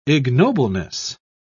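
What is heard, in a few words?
"ignobleness", English, British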